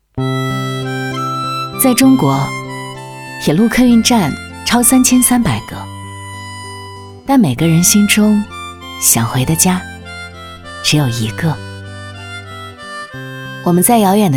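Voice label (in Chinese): 女 温情自述回忆感慨走心不粘腻, 国语配音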